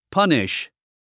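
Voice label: "punish", English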